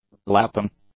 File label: "lapping", English; nouns